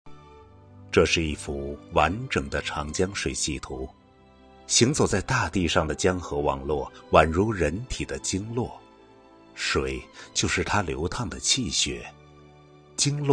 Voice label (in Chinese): 纪录片; 长江